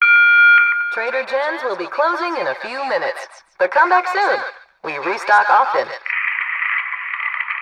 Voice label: trader announce closing; 7D2D

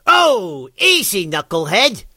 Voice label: hurt vo

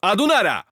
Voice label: Rom idle, 罗马尼亚语; 罗马尼亚语（钢铁雄心4）

